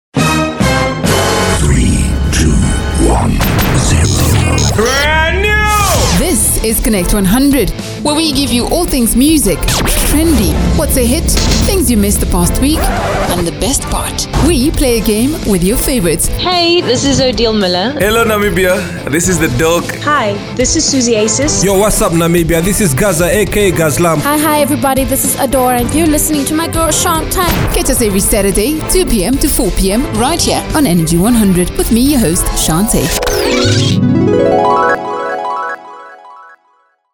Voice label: Promo; Connect 100 Promo; Energy 100